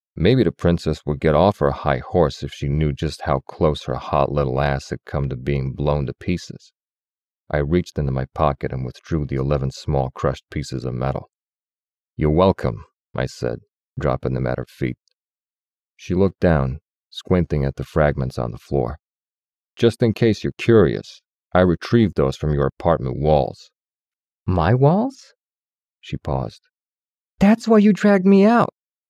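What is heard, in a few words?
New York Accent Dual; Dual – NY Accent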